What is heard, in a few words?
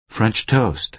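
"french toast", American English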